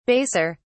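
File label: "baser", British English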